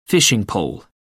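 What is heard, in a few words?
"fishing pole", British English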